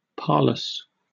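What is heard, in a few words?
"parlous", British English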